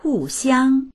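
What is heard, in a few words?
"hu4xiang1", Mandarin Chinese; hùxiāng; 互相; 互いに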